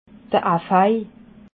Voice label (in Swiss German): "aphai", alsace